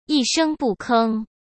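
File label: "一声不吭", Mandarin Chinese; hsk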